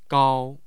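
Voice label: "gao", Mandarin Chinese, tone 1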